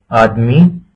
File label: man, hindi